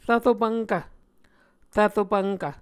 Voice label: "rvro pvnkv", Muscogee